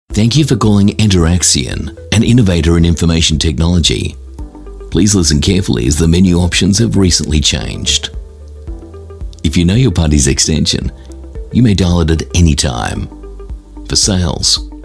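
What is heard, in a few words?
Australian PHONE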